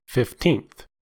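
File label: "fifteenth", US English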